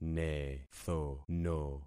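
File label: "nethono", concatenated syllables; Affixing experiment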